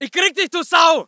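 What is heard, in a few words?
voice german infantry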